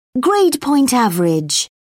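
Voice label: "grade point average", English, British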